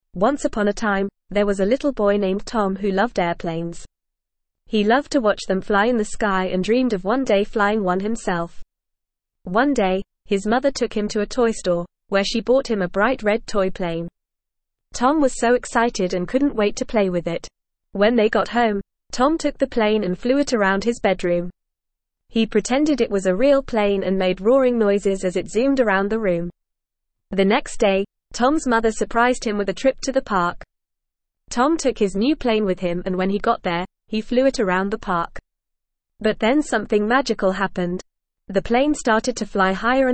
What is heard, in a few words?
ESL Short Stories for Kids NORMAL reading; The Magic Toy Plane